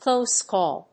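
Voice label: "close call", English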